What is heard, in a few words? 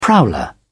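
"prowler", British English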